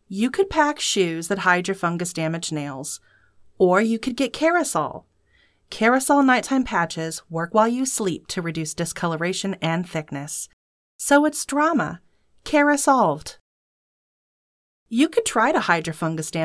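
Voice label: Foot Treatment audition, female voice talent; Kerasal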